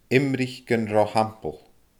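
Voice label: "imrich gun ro shampall", Scottish Gaelic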